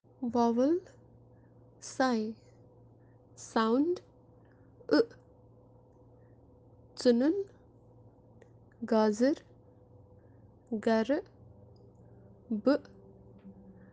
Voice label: Vowel kashmiri vowels

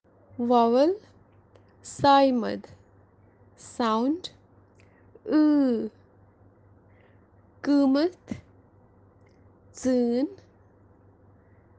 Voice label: Vowel kashmiri vowels kashmiri language

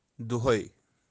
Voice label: "dohai" everyday kashmiri

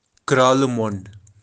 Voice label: "kraalimond", Kashmiri

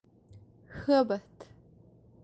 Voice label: "habath" fear, kashmiri

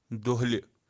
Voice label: "dohli", kashmiri

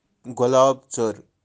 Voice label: "golaab-tser" rosefinch kashmir

